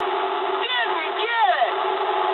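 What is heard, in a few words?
bomb failure mood high, speech English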